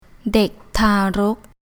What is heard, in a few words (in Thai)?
"Dèk Taa-rók", Thai